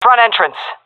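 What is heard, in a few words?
front, female; player vo; Counter Strike Model Pack; CS:GO; seal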